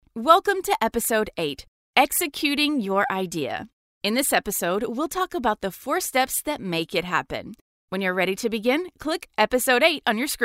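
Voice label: eLearning, voice actor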